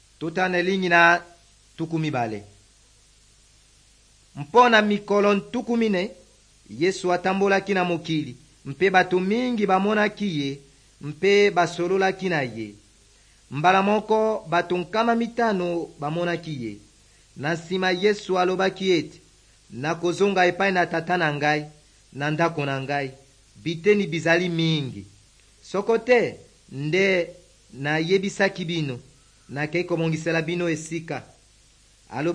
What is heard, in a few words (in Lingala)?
good news lingala west, drama